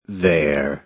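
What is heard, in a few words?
"there", American English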